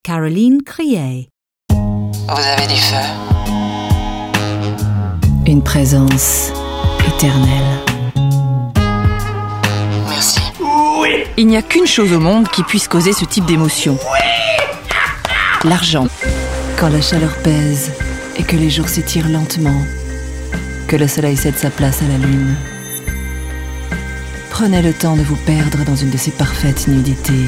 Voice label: female French commercial compilation; voices; devine voices